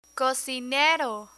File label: "cocinero", Spanish; spanish vocabulary; learn spanish